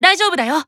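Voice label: Voice Emo Inspire Team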